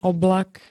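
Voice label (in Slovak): "oblak", Slovak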